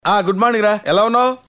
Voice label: Good Morning ra Telugu